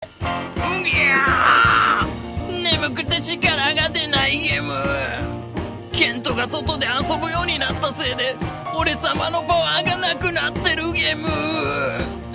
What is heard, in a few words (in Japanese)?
ボイスサンプル アニメ悪者